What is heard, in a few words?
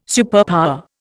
"superpower", Indian